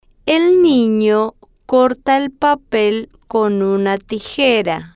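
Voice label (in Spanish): el nino corta el papel con una tijera, Spanish, female, slow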